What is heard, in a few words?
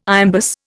"iambus", Indian